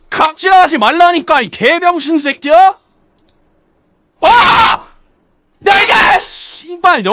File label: jeers; scout